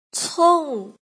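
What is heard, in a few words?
"cong" fourth tone, pinyin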